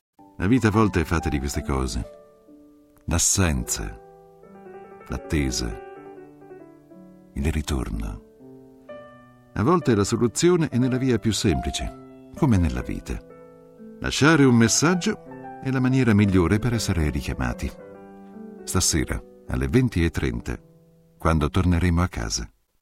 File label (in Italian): Segreterie Telefoniche - L'annunciatore tv